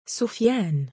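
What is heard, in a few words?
"sufyaan", Arabic, male; given name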